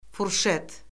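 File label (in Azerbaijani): "furşet", Azerbaijani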